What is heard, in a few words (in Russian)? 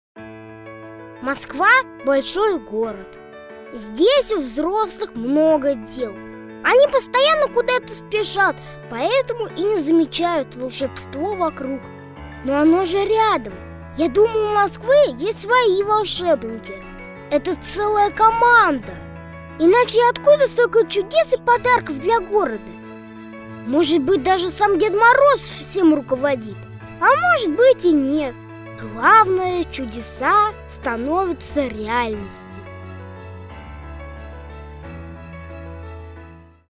Закадр